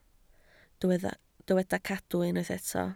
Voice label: "cadw", Welsh

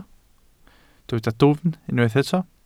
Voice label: "dwfn", Welsh

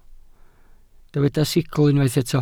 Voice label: "sicl", welsh

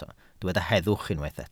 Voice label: "heddwch", Welsh

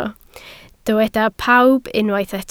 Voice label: "pawb", Welsh

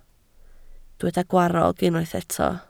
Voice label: "gwarrog", Welsh

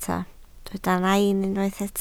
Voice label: "nain", Welsh

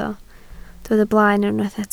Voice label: "blaen", Welsh

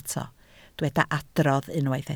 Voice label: "adrodd", Welsh

